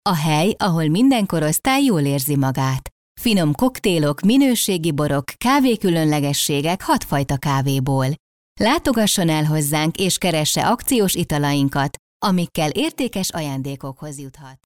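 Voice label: Hungarian, female, e-learning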